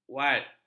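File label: "waad", Malay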